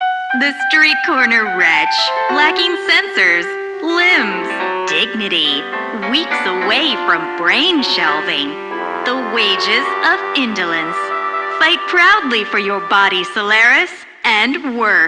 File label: PA System, en; Dialog; Warframe; Solaris Venus